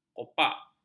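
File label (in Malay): "kopak", Malay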